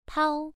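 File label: "pao", tone 1, pronunciation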